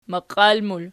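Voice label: "maqial" plural, Archi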